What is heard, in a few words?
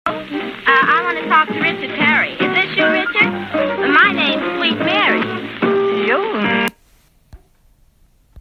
mid atlantic new york female